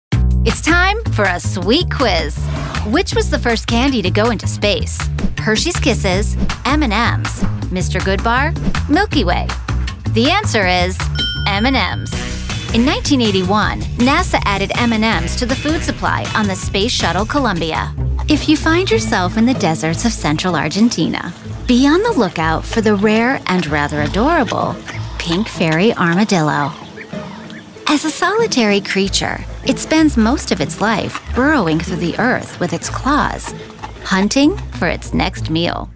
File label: TV Narration